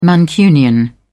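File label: "mancunian", British English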